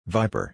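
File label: "Viper", English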